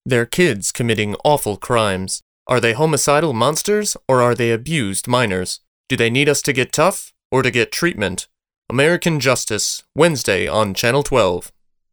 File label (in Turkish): İNGİLİZCE